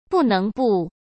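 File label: "不能不", Mandarin Chinese; hsk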